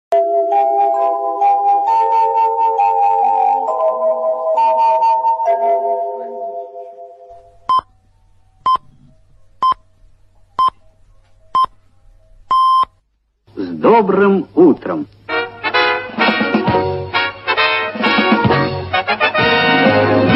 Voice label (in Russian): radio sssr dobroe utro